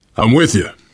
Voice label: im with you, voice, biker; Left 4 Dead 2; survivor

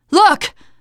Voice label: look, teen girl; player; survivor; voice

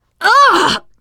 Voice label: boomer reaction, teengirl; player; survivor; voice

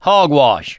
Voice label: reaction negative, survivor voice mechanic

